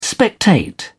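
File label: "spectate", English, British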